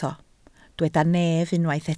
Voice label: "nef", Welsh